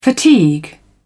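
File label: "fatigue", British English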